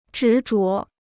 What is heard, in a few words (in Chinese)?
"执着", Chinese